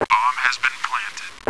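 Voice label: bomb planted, announcer; vo; Counter Strike Model Pack; CS2; cs2 classic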